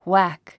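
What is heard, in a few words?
"whack", English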